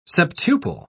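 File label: "septuple", British English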